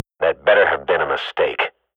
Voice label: radio bot under fire friendly, Counter-Strike swat; Global Offensive; player vo